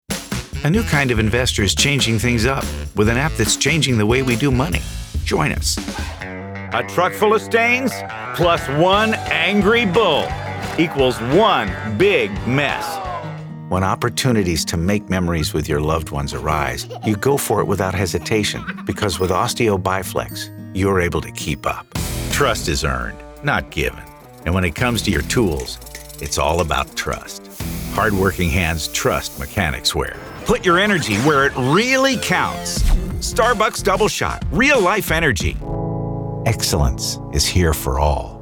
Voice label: Commercial